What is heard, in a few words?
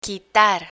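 "quitar", Spanish; verbs ar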